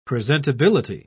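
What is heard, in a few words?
"presentability", British English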